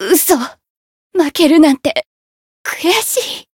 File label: retire jp